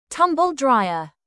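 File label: "tumble dryer", British English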